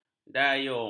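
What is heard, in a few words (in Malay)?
"dayung", Malay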